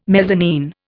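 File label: "mezzanine", English, Indian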